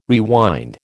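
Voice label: "rewind", American English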